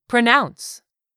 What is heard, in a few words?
"PRONOUNCE", English; 3000 words